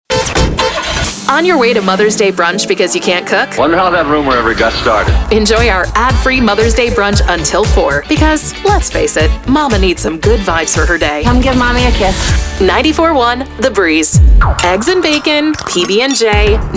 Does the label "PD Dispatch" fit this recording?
No